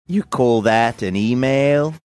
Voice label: saying you call that an email australian